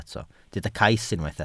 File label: "cais", welsh